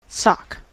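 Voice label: "sock", US English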